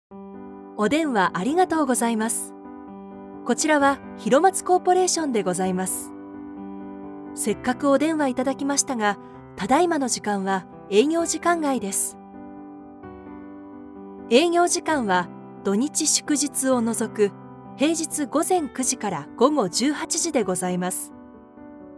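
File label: japanese interactive voice response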